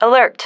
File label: "alert", female